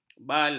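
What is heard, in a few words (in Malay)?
"balah", Malay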